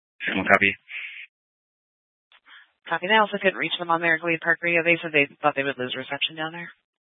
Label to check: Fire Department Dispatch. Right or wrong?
Right